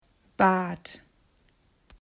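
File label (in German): "Bad", German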